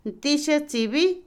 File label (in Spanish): fogón, Otomí, mujer; Casa; INALI